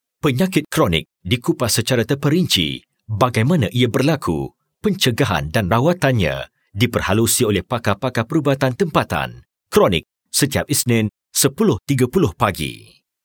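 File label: malay male voice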